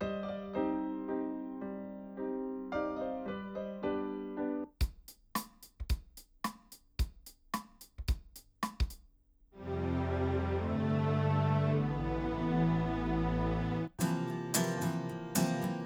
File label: AKG C414 XLII cardio